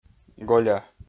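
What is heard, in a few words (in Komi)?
"голя", Komi-Zyrian, male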